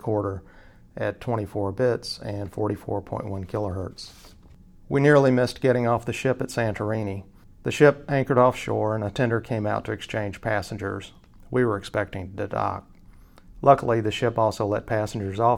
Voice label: Sennheiser MKH50 left Sanken COS11 right male, microphones